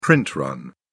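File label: "print run", British English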